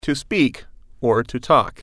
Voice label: "speak or talk", English